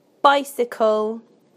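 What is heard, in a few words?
"bicycle", British English; PictureDictionary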